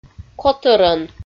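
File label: "котӧрӧн", Komi, female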